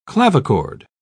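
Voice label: "clavichord", English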